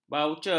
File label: "baucar", ms